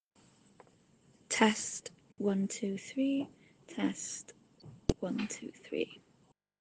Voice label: Test voice note